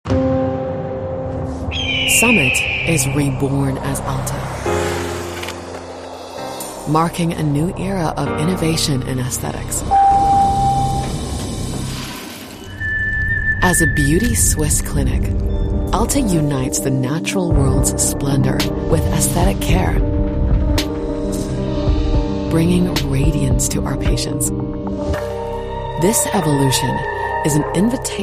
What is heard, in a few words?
english international explainer videos; voice actor